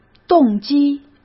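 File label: "动机", Mandarin Chinese; chinese-flashcards; hsk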